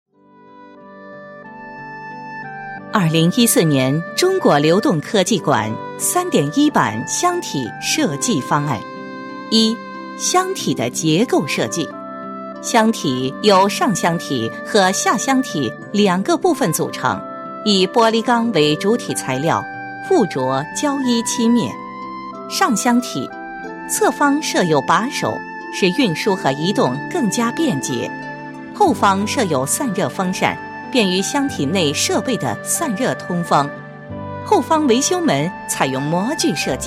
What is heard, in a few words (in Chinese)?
女 专题 设计方案解说, 国语女声配音; 全能配音